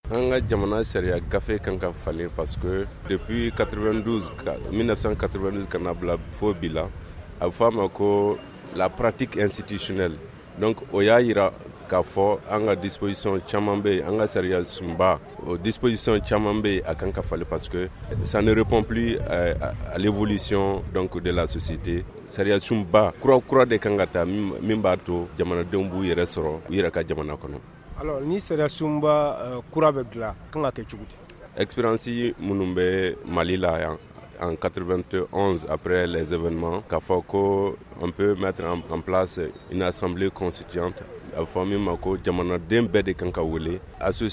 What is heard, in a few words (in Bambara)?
magazine bamanankan